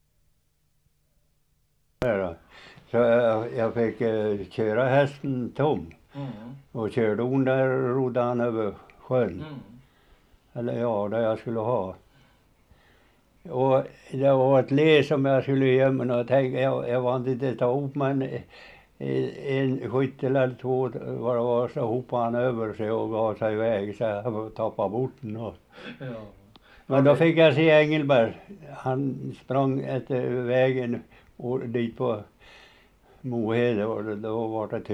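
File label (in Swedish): Mo Hälsingland, Svenska sagor, dialekter; Folkminnen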